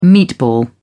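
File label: "meatball", British English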